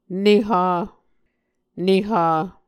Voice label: "neha", muscogee